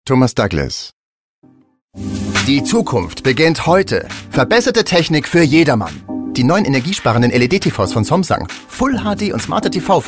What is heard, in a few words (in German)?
Werbung Full HD TV; Somsang